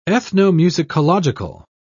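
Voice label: "ethnomusicological", British English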